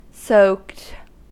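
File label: "soaked", American English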